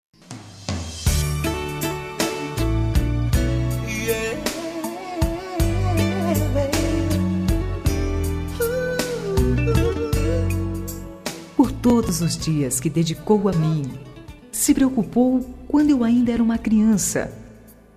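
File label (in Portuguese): Aniversário de Tio, female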